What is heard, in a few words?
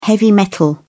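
"heavy metal", British English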